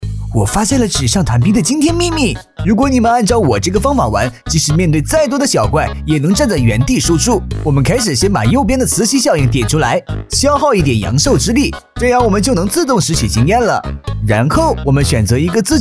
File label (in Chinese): 信息流游戏台湾腔; 发现秘密